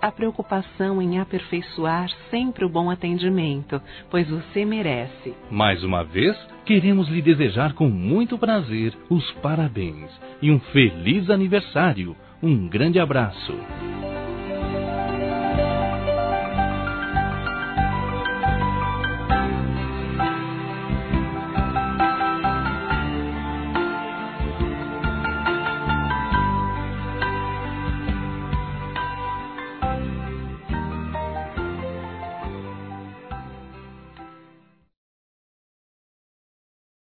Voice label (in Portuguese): CLIENTE AMIGO, Telemensagens Empresarial; fem; Telemensagens 2017